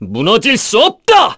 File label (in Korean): Vox Skill, kr; voices; heroes; Clause; kingsraid